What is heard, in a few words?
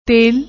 "tel", Punjabi